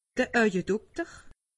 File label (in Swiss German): "aujedokter", alsace; medecine